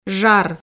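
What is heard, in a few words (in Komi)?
"жар", Komi, female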